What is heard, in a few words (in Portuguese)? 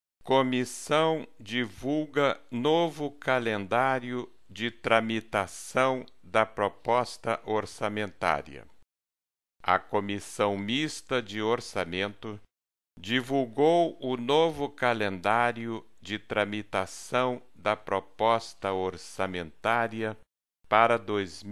em ritmo lento; comissão divulga novo calendário